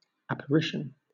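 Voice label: "apparition", British English; pronunciations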